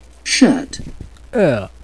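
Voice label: "shirt", British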